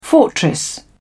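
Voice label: "fortress", English, British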